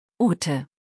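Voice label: "Ute", German; maedchennamen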